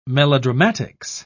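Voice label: "melodramatics", British English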